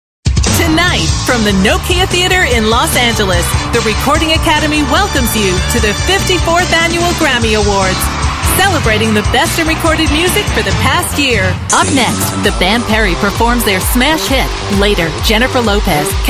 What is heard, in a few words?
announcers female live announcer; show and stadium announcers